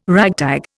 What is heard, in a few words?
"ragtag", Indian